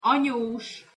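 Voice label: "anyos", Hungarian pronunciation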